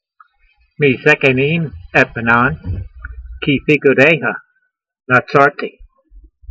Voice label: voice, hebrew language; Psalm 119:100; meezekayneem etbonan, kee feekoodeyha natsartee